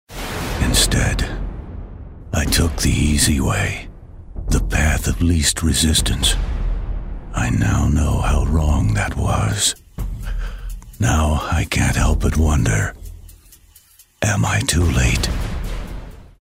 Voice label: Movie Trailer

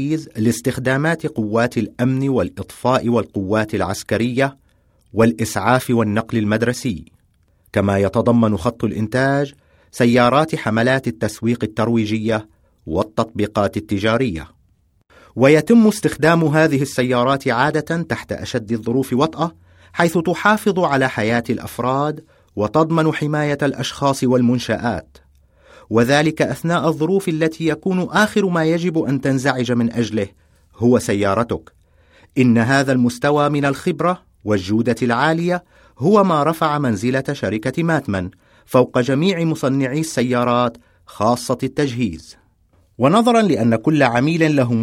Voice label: Arab

